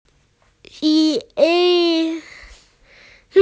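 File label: "zhi ye" (occupation), Chinese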